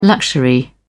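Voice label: "luxury", British English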